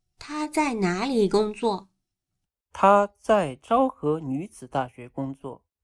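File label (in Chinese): "她在哪里工作", Chinese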